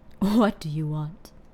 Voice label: What Do You Want, human female evil; customnpcs